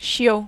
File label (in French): "chiot", French